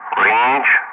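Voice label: "for", English; Ka-50; Wingman; Speech; DCS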